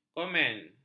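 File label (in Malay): "komen", Malay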